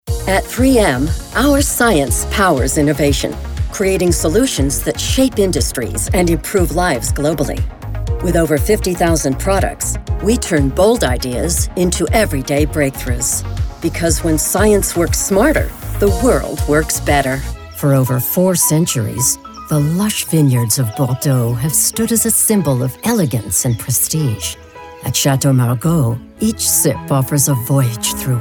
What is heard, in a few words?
corporate, voiceover